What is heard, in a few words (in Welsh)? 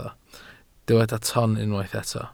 "ton", Welsh